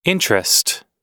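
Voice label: "interest", American English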